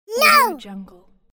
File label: cartoon character screaming "no"